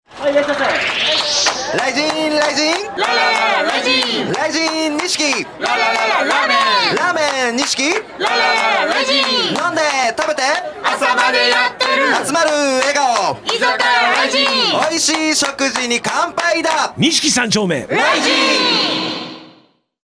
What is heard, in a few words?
Radio CM; RIZIN; 雷神